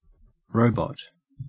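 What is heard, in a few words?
"robot", Australian English; pronunciations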